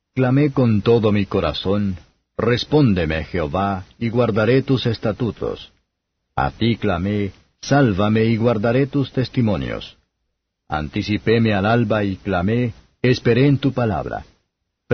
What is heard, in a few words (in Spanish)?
salmos spanish; 119; coph